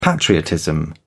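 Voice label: "patriotism", British English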